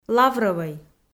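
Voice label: "лаврӧвӧй" Komi, female; kpv audio dict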